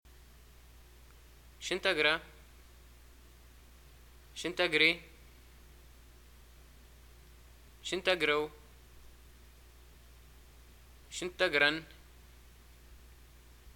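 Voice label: what do u study, Libyan arabic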